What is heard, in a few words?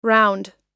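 "round", US English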